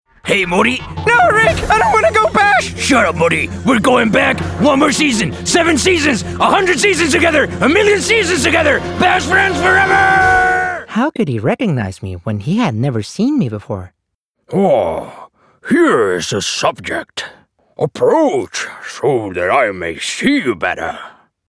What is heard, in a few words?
Cartoon, Conversational, Bright, Upbeat, Natural, English; Cartoon Reel